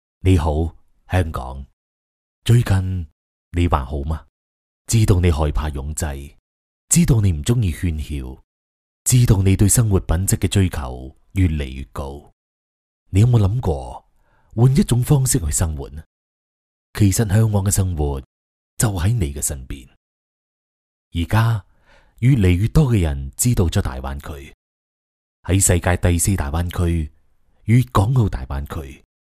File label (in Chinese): 男粤 其他 旁白, 粤语; 香港城